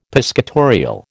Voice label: "piscatorial", American